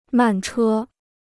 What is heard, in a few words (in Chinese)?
"慢车", Chinese